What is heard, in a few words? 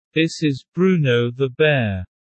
low; Animal friends